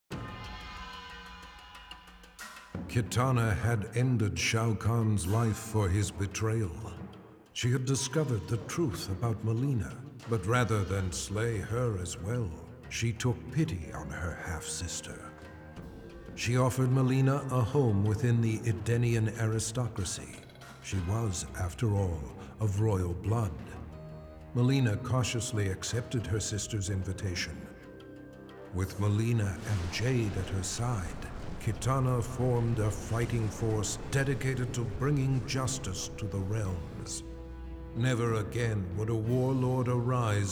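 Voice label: music voice over endings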